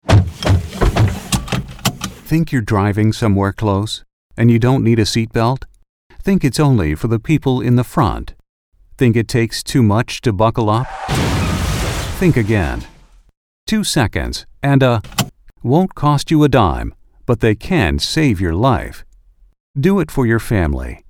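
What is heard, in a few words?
Radio PSA VO English; nhtsa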